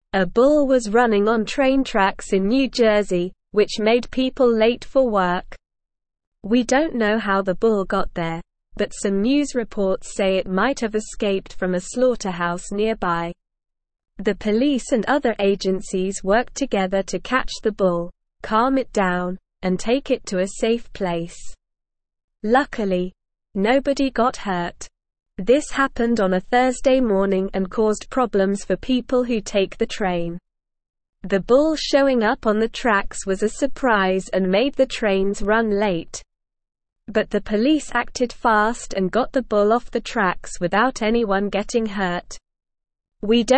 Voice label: English Upper Intermediate SLOW Reading; English Newsroom; Bull on New Jersey train tracks causes delays